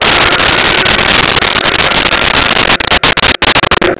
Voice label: sfx vox tusken echo